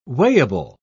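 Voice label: "weighable", British English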